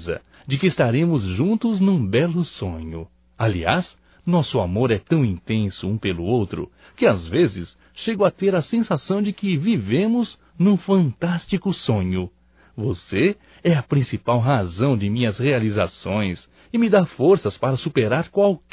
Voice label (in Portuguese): BOA NOITE ROMâNTICO(M); Bom dia, tarde e noite; Telemensagens 2017